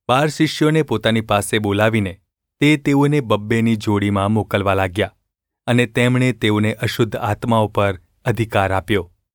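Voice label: gu ulb mrk, Gujarati; mrk 6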